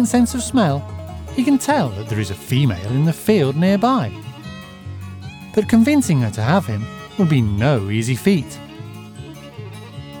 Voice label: Buck rabbit in spring, Natural History: Genuine Articulate Believable British Uk Narration; Documentary